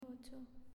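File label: "Ocho", Spanish, female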